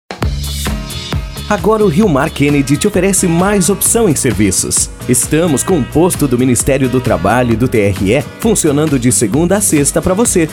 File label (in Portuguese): Locutor; RioMarShop